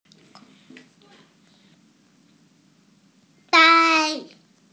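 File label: "dai1", Chinese, female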